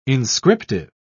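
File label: "inscriptive", British English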